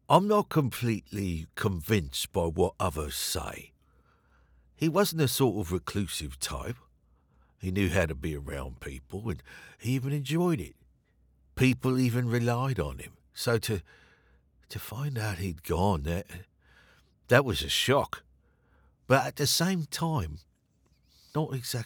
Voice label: South London

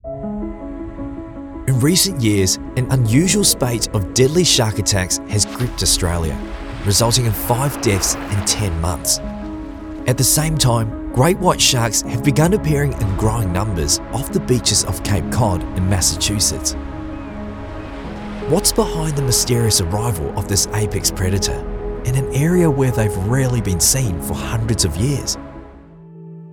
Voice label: Narration

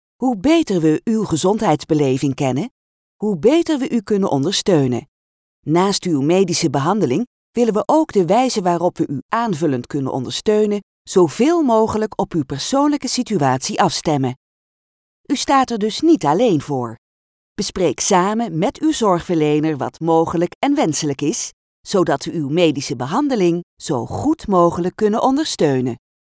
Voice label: Elearning Example medical

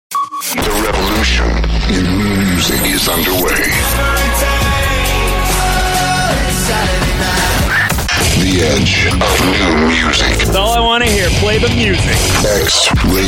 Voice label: ALTERNATIVE ROCK ACTIVE ROCK Imaging

